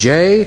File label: "j", male British